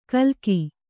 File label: "ಕಲ್ಗಿ", Kannada